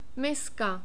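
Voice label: "miska", czech, male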